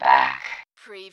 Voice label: Robot voice, back